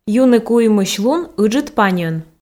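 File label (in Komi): юны куимысь лун ыджыд паньӧн, Komi, female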